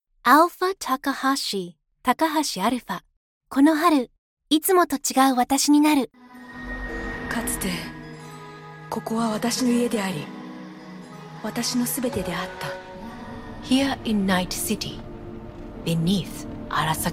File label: VO English and Japanese